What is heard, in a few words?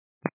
122 725MHz, scanner radio